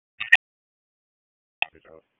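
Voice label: Police Northeast District Dispatch; Raleigh; wake; p25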